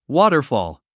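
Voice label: "waterfall", English; 発音 wɔ́ːtərfɔ̀ːl ウォータァフォール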